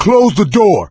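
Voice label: close the door, male; Left 4 Dead 2; coach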